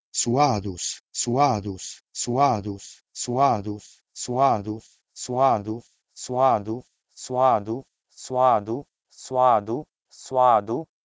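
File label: Proto-Indo-European sweh2dus to Sanskrit swadu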